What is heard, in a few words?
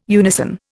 "unison", Indian